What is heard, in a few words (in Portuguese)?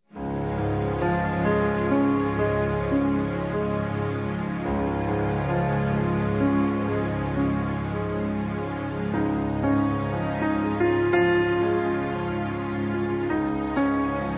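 ANO NOVO P MÃE, Feminino; Telemensagens; Natal e Ano novo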